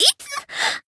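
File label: Voice damaged, Japanese; saki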